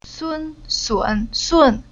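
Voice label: "Sun", chinese syllable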